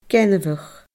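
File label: "gainmheach", Scottish Gaelic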